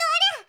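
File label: "torya", girl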